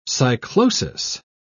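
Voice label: "cyclosis", British English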